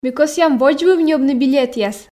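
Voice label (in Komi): ми кӧсъям водзвыв ньӧбны билетъяс, Komi, female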